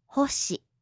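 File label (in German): "Hoshi", japanische Mädchennamen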